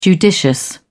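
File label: "judicious", British English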